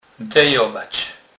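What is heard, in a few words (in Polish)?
"dejowac", Kurpie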